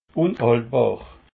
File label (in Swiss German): "unhaltbar", alsace